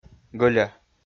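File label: "гӧля", Komi, male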